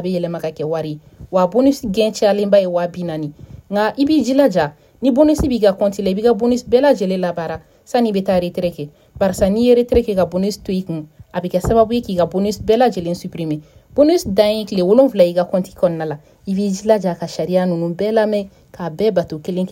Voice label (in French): VOIX PROMO CASINO NOEL